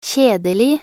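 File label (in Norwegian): "kjedelig", Norwegian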